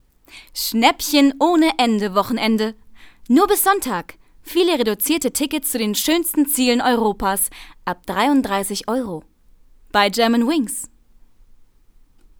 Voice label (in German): Werbung Text Probe